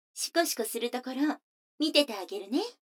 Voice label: 女の子台詞, ASMR

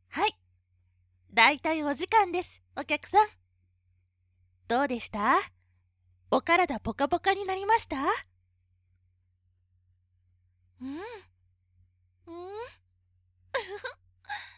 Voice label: ASMR, お見送り; 普通のお客さん版

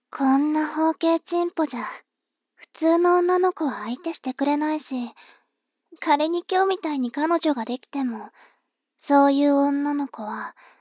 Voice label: 妹 台詞

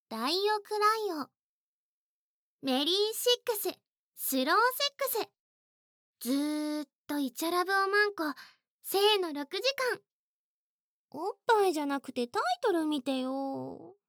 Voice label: タイトルコール; ヒロナ

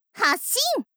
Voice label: "はっしん！", しすてむぼいす; 宅配少女未定 サヨナラの先に

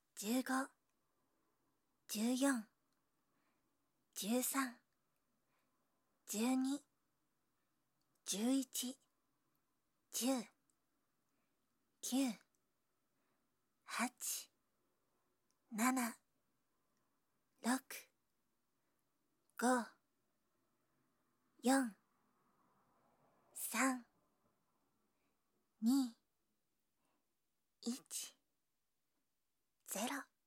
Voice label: カウント ステレオ, ASMR

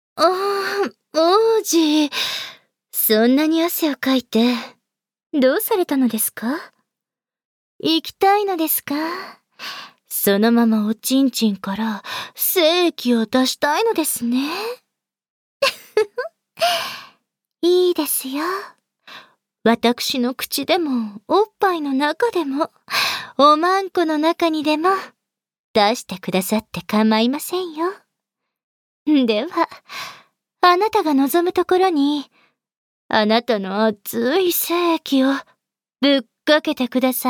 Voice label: トドメボイス

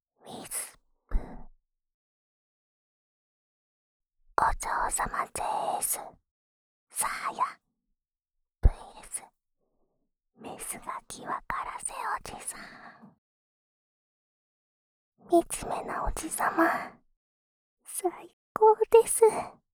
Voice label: タイトルコール

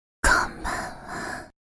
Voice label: 「こんばんわ」（ささやき）; 挨拶パーツ（エクササイズ前）; 特製チクニーエクササイズボイスパーツ

